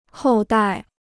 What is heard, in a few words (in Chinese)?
"后代", Chinese